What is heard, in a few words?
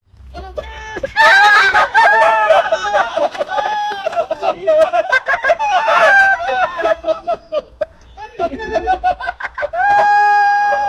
two nigerians